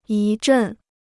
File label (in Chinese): "一阵", Chinese